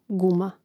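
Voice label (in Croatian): "guma", hrvatski; mreznik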